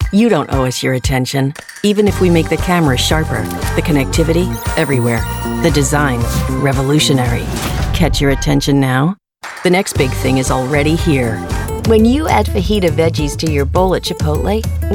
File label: Commercial